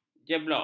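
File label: "jeblok", Malay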